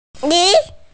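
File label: "li2", Mandarin Chinese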